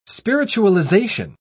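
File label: "spiritualization", British English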